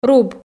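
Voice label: "руб", Komi, female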